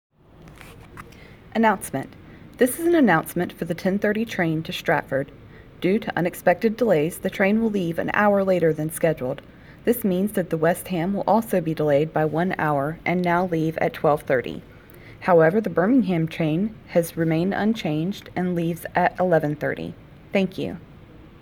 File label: Conversation